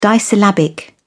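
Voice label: "disyllabic", British English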